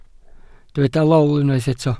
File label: "lol", Welsh